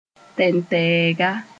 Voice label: "tɛntɛɛga", Moore